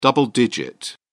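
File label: "double digit", British English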